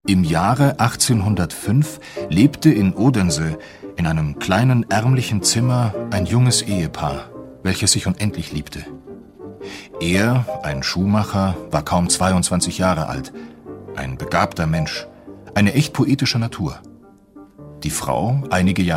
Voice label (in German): Erzählung; Bayern2